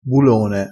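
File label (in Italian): "bulone", dialettale crespinese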